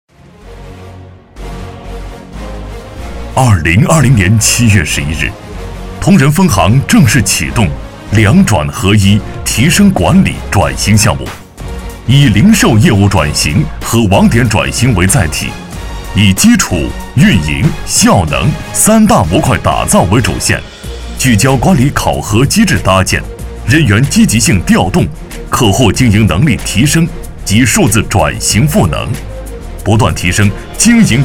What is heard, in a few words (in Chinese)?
男 专题; 铜仁分行